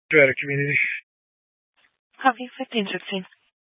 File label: Grand Junction Fire Department Dispatch